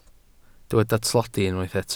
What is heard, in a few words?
"tlodi", Welsh